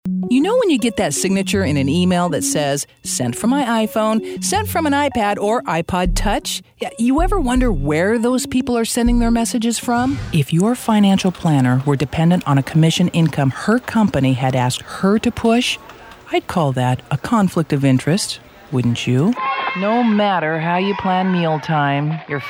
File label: Commercial